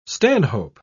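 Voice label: "stanhope", British English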